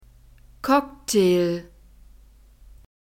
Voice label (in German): "Cocktail", German